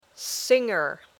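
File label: "singer", English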